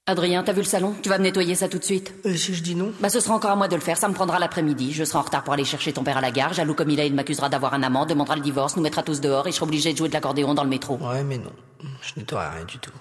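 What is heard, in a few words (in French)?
spot, spots radio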